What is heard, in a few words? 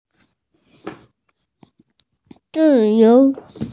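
"freedom", Mandarin, hearing-impaired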